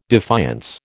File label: "defiance", American